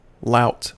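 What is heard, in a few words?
"lout", US English; pronunciations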